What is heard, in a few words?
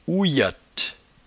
"oueyatte", patois jura